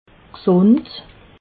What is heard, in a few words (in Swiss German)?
"gsund", alsace